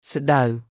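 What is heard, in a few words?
"ស្តៅ", Khmer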